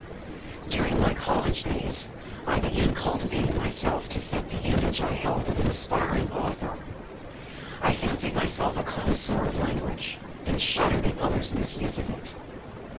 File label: male noisy